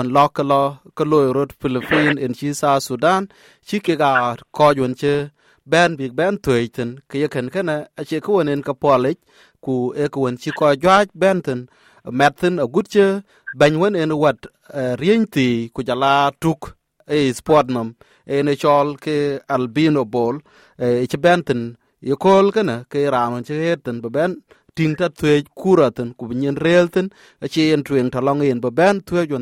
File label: dinka; Bright Stars the pride of Nation; The team has brought together the nation that has been fractured by war; SBS Dinka